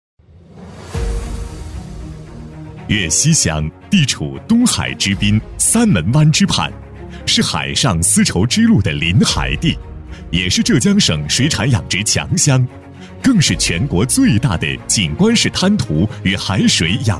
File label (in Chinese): 男 政府专题 大气磁性; 双拥工作; 高端大气; 大气浑厚; 男117-政府专题《双拥工作》-大气磁性